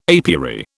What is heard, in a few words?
"apiary", British